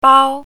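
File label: "bao1", Mandarin Chinese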